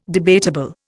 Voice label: "debatable", Indian